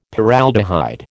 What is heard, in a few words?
"Paraldehyde", American